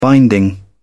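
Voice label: "binding", English, uk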